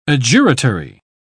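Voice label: "adjuratory", British English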